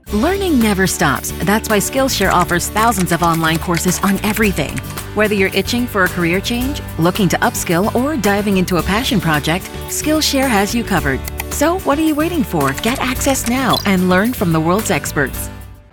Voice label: Radio Commercials, Relaxed Confidence Ease, Female Voice; SKILLSHARE